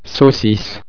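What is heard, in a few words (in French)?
"saucisse", French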